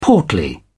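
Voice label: "portly", British English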